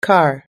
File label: "car", us english